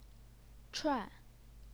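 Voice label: "chuan", fourth tone, Chinese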